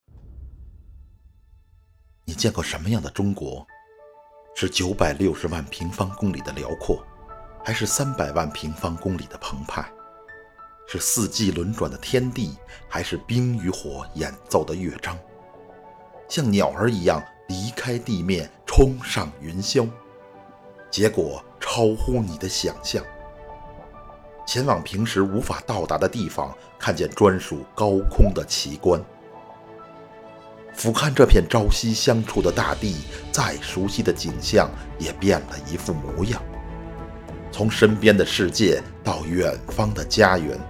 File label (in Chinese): 航拍中国纪录片